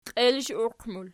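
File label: "qielishiuqmul" nominative, Archi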